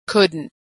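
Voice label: "couldn't", American English; Consonant Sound Pair; Negative Verb Forms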